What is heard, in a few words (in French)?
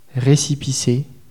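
"recepisse" French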